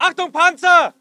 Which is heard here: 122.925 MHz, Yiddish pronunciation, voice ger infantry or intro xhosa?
voice ger infantry